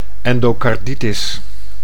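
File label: "endocarditis", Dutch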